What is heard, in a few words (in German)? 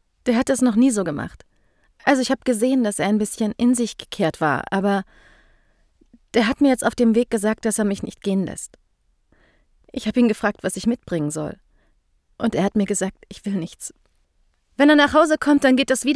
Erntehelferin Kind Frau Voiceover